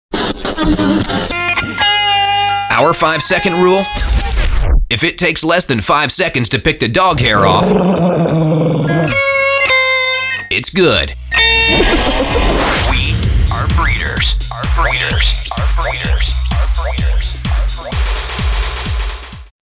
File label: Podcast